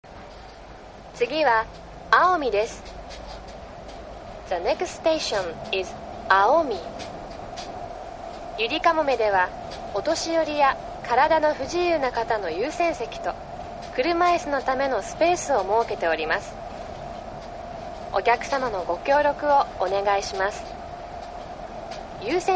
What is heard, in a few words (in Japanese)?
国際展示場正門到着前, 車内放送; 国際展示場前発車後; yurikamome